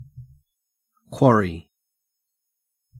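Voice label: "quarry", Australian English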